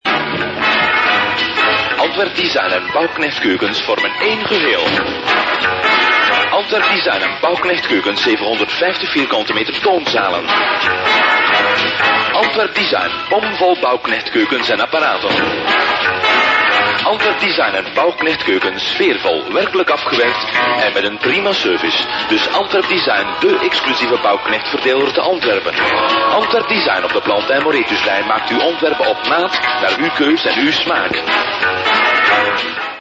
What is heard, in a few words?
commercial